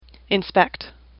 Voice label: "inspect", English; AWL